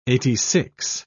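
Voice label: "eighty-six", British English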